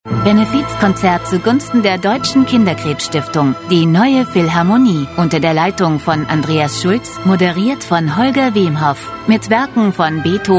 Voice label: germany voice over female narrator